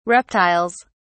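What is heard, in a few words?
"reptiles", British English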